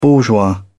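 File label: "bourgeois", British English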